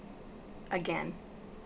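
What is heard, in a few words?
"again", Canadian English, female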